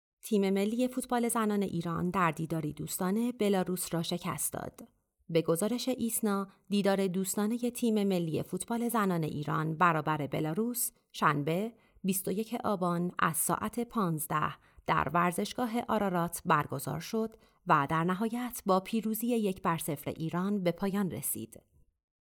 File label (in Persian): نمونه صدای سوم خبر